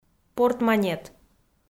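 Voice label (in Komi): "портманет" Komi, female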